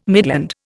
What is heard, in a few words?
"midland", Indian